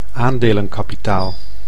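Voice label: "aandelenkapitaal", Dutch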